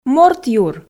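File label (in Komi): "морт юр", Komi, female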